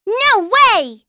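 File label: Vox Deny, voices heroes English; kingsraid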